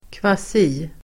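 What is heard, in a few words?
"kvasi-", Swedish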